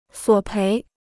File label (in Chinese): "索赔", Chinese